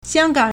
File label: "xiang1gang3", Chinese